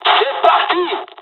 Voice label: dialog, dialogs french; War Thunder